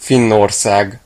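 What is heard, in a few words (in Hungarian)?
"finland", Hungarian; gcompris; geography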